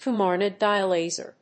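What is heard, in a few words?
"coumarin dye laser", English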